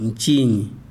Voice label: "what", Chikunda